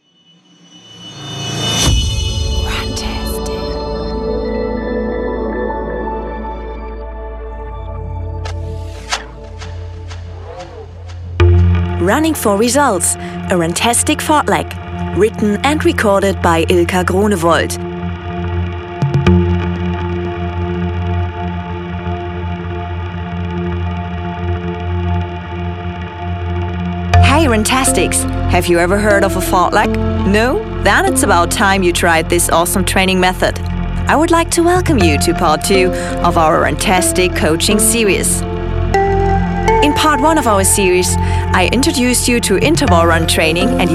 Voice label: story run english; Podcast Moderatorin & professionelle Sprecherin; Runtastic Story Run "Running For Results" (English)